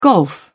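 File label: "golf", British English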